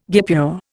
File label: "guipure", Indian